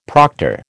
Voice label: "proctor", American